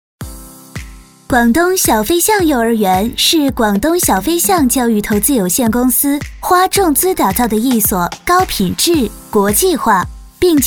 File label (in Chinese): 专题甜美轻松